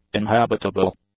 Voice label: "inhabitable", English; adjectives